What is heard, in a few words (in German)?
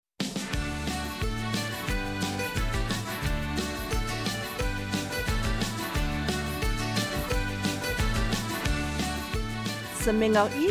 Eifeler Mundart; Der Rechter Schieferstollen